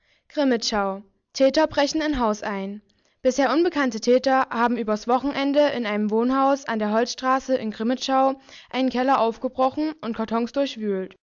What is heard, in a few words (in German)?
Meldung